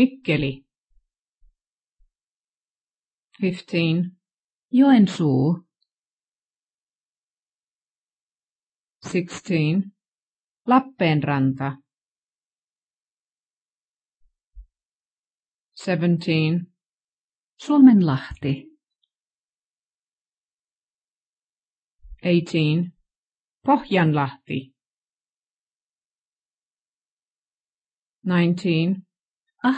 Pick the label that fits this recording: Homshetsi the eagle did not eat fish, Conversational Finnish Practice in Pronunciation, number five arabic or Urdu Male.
Conversational Finnish Practice in Pronunciation